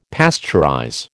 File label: "pasteurize", American